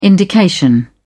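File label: "indication", British English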